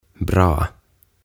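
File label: "Bra", "Hyvä", Swedish, Finnish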